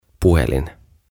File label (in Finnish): "Puhelin" "Telephone"